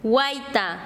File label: Chanka, quechua; flor